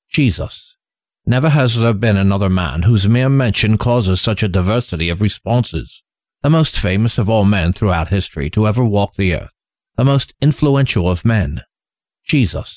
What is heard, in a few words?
Narrator Audiobook